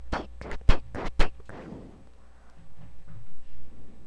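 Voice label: "pick", spoken word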